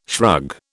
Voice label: "shrug", British